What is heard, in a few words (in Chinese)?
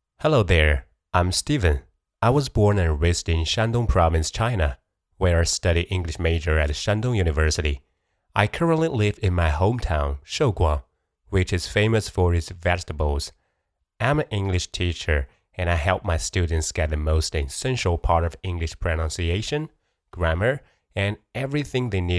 英语自我介绍